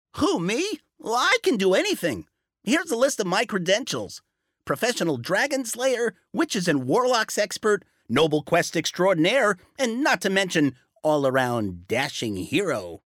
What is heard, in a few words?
Cartoon / Fantasy